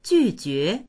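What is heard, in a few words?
"ju4jue2", Chinese; jùjué; 拒绝; 拒絶する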